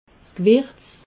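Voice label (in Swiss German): "gewirz", Alsatian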